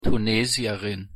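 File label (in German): "Tunesierin", German; pronunciation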